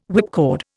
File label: "whipcord", Indian